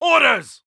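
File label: British Neutral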